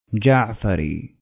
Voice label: "Ja’fari", Arabic